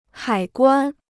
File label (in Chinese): "海关", Chinese